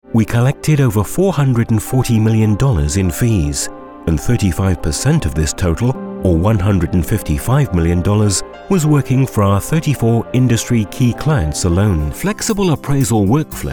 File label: Narration